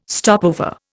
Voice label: "stopover", Indian